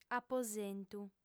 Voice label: "aposentu", audiofem